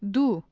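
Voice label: "du" Tajik female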